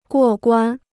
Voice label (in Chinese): "过关", Chinese